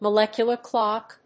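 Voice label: "molecular clock", English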